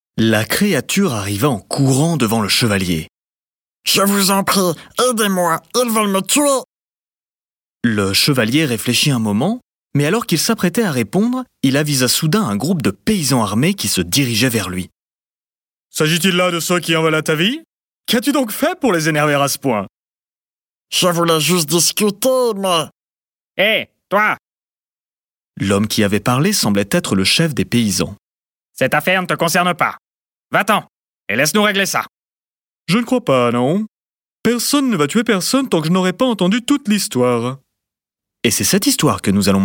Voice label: french male audiobook, Approachable Friendly French Male Voice